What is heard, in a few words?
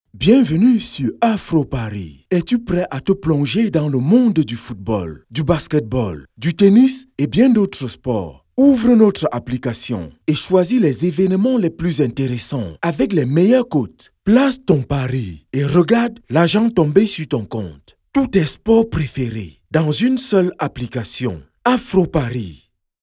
promo Engaging